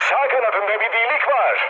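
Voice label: Turkish right wing, mood med, speech; War Thunder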